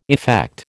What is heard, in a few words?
"effect", American